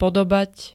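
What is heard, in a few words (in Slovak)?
"podobať", Slovak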